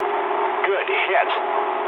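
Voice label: English bomb success mood low; speech; War Thunder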